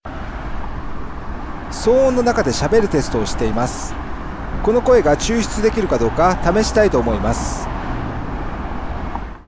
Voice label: Daialogue isolate mono